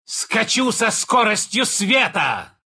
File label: attack, ru; Dota 2